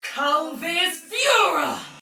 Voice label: Attack Heavy, Soldier Female, Battle; Dialog; Barks; Sinecure